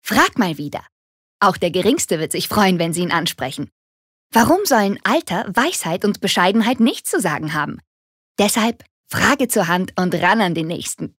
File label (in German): Werbung, Dynamisch